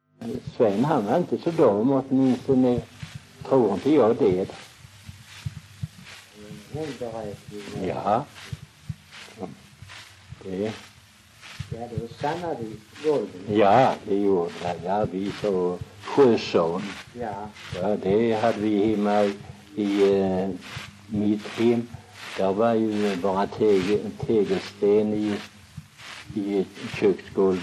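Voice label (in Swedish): Väsby Skåne, dialekter; Folkminnen; Svenska sagor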